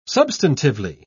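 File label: "substantively", British English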